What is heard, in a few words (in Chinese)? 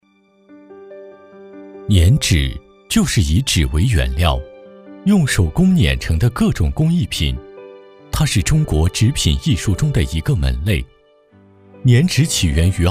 捻纸 解说词; 杨桥